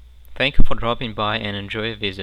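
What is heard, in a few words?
English Greeting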